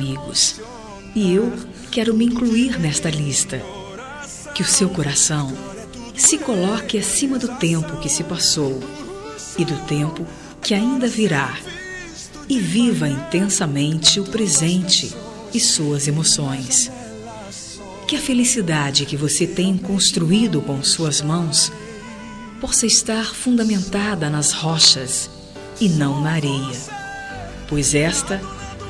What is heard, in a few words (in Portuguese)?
Aniversario Casamento Evangelico Feminino, Telemensagem Evangélica Aniversário de Casamento